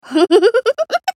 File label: spellcast effort; Annie